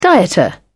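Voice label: "dieter", British English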